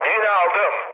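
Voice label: Turkish player killed enemy, mood med, speech; War Thunder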